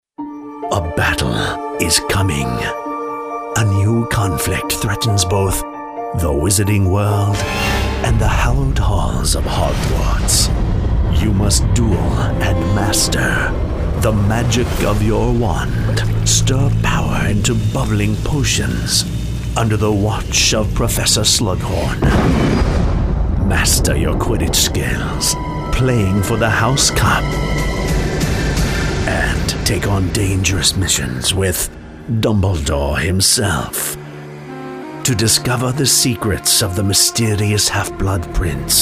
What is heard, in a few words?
Anncr